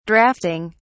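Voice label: "draughting", British English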